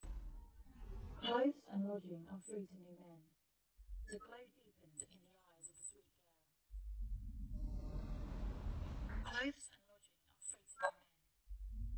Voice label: aec test, acoustic echo cancellation; esp32p4